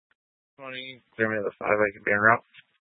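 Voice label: Tempe Police Department, scanner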